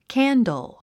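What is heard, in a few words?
"candle", English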